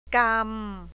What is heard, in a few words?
"hold", thai; กำ